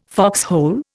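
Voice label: "foxhole", Indian English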